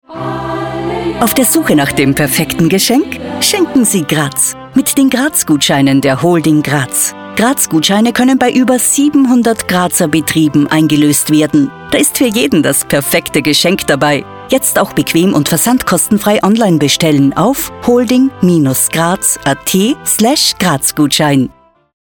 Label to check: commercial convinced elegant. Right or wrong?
Right